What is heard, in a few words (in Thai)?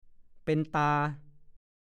"เป็นเด๊าะเป็นด่อง", ภาษาโคราช; ดูคำว่า เป็นเฉ๊าะเป็นฉ่อง